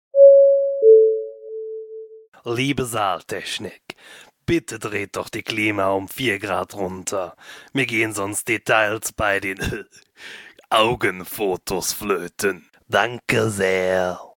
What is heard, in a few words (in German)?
durchsage